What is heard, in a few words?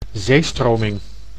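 "zeestroming", Dutch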